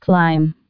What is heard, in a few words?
"climb", female; fgdata